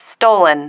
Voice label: "stolen", female